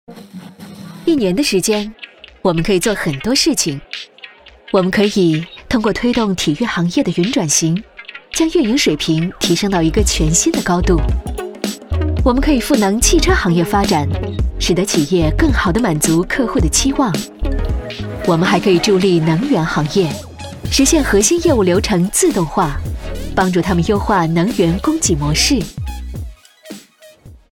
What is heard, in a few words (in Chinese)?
科技优雅轻松